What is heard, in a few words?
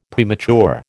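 "premature", American English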